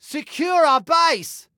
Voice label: Base Secure, Male